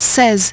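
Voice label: "sixteen", English; iOS 4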